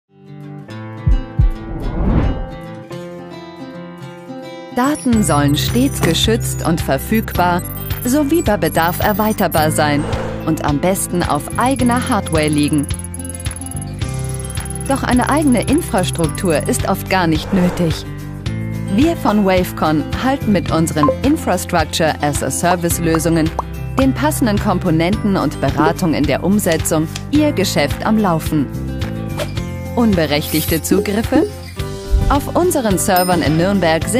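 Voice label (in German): Kommentar, Freundlich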